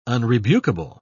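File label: "unrebukable", British English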